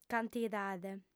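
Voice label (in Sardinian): "cantidade", female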